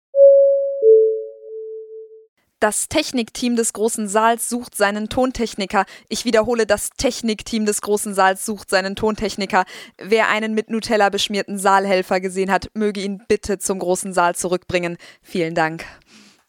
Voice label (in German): durchsage